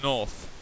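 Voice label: "north", English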